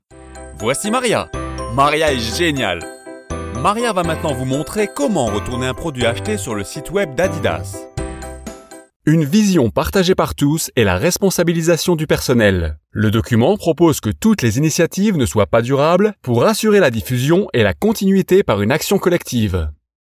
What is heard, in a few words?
authentic conversational and friendly tone